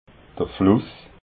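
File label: "fluss", Alsatian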